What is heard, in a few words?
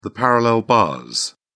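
"parallel bars", British English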